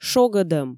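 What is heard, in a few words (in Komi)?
"шогӧдӧм", Komi, female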